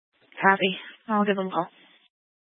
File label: Sheriff Dispatch; Mesa County